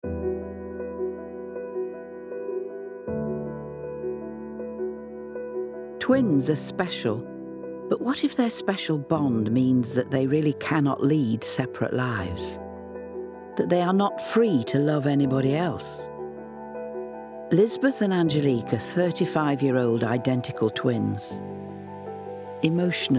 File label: VO Reel Doc Narr